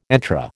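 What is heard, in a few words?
"entrée", American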